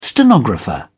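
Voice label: "stenographer", British English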